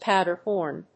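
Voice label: "powder horn", English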